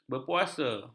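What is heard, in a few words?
"berpuasa", Malay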